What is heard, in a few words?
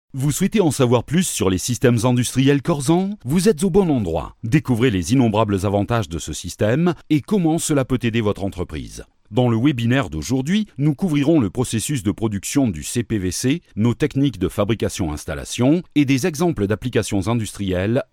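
male, French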